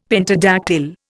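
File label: "pentadactyl", Indian English; Dictionary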